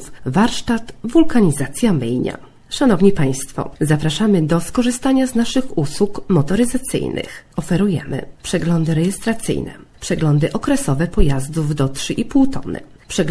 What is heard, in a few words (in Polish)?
reklama